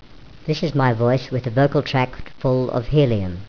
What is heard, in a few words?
helium speech